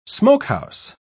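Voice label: "smokehouse", British English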